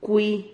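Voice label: "cui", Spanish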